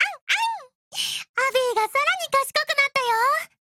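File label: voice over LEVELUP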